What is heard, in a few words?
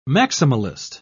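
"maximalist", British English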